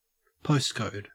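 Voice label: "postcode", Australian English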